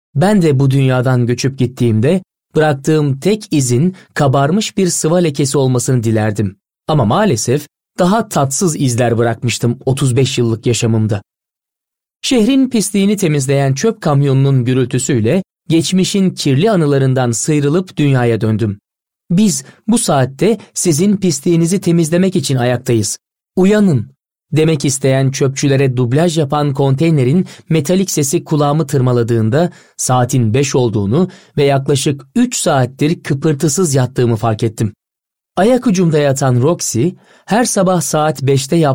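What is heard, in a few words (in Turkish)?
kitap, seslendirme; Sesli Kitap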